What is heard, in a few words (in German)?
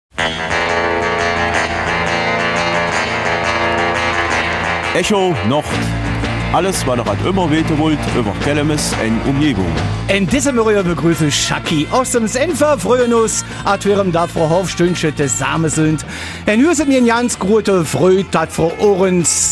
Kelmiser Mundart; Neues aus der Großgemeinde